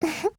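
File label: mood, Japanese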